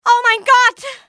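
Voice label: my god, female; XBOX; civ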